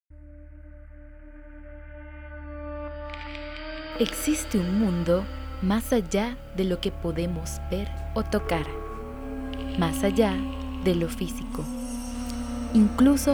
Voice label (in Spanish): Narrador